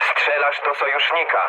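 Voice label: player friendly fire, mood low, Polish speech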